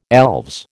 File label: "elves", American